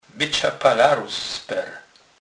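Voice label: "palarus", Polish, Kurpie dialect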